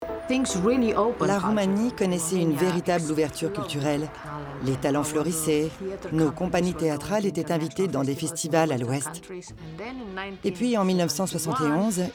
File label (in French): voix off; Nadia Komanetchi